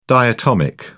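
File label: "diatomic", British English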